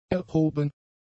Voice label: "erhoben", German; adjectives

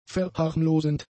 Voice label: "verharmlosend", German; adjectives

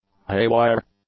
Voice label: "haywire", English; adjectives

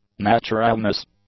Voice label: "naturalness", English; nouns

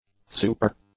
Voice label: "super", English; adjectives

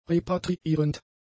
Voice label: "repatriierend", German; adjectives

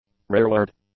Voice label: "rearward", English; adjectives

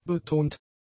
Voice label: "betont", German; adjectives

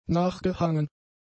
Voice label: "nachgehangen", German; adjectives

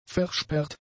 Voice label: "versperrt", German; adjectives

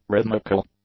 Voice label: "rhythmical", English; adjectives

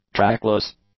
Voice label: "trackless", English; adjectives

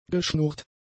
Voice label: "geschnurrt", German; adjectives

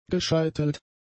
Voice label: "gescheitelt", German; adjectives

